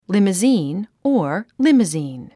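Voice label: "limousine", English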